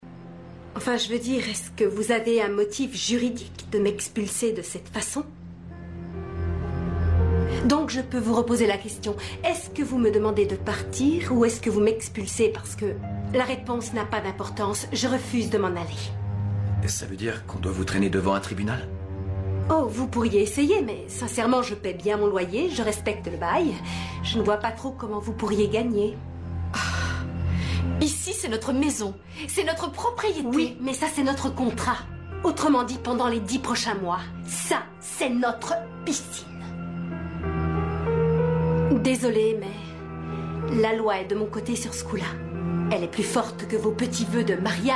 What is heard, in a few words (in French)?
seductrice, voix parlées doublage audio